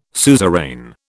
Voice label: "suzerain", British